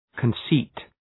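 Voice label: "conceit", English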